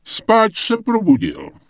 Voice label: Night Elf Male Standard NPC Greeting; wowpreklad; czwow

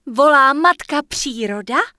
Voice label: Night Elf Female Flirt; wowpreklad; czwow; NightElfVocalFemale

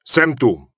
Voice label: Human Male Official NPC Greeting; wowpreklad; czwow

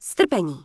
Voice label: Human Female Wait Here; wowpreklad; czwow; HumanVocalFemale

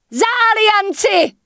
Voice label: Human Female Merchant Start Shopping; wowpreklad; czwow; NPC

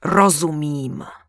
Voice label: Night Elf Female Priestess Pissed; NPC; wowpreklad; czwow

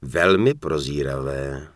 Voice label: Human Male Congratulations, Human Vocal Male; wowpreklad; czwow

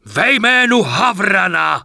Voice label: Night Elf Male Warrior NPC Farewell; wowpreklad; czwow

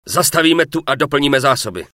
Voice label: Night Elf Male Wait Here; wowpreklad; czwow; NightElfVocalMale

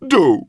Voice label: Peasant Pissed; wowpreklad; czwow

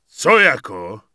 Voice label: Peon Pissed; wowpreklad; czwow

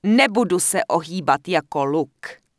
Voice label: Night Elf Female err chest in use; wowpreklad; czwow

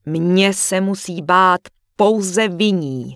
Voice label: Human Female Warrior NPC Greeting; wowpreklad; czwow

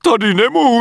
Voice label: Human Male loot didnt kill; Error Messages; wowpreklad; czwow; MaleErrorMessages

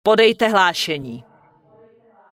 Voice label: Night Elf Female Standard NPC Pissed; wowpreklad; czwow

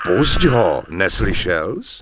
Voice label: Human Male No, Human Vocal Male; wowpreklad; czwow

